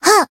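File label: attack, Japanese; nagi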